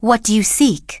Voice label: Blood Elf Female Standard Vendor